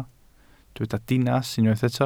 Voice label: "dinas", welsh

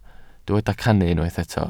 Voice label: "cannu", Welsh

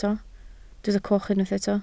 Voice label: "coch", Welsh

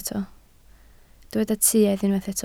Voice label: "tuedd", Welsh

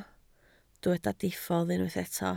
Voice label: "diffodd", Welsh

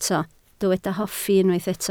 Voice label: "hoffi", Welsh

